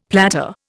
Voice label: "platter", Indian; Dictionary